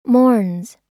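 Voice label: "mourns", American English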